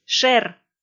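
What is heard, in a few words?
"шер", Komi, female; kpv audio dict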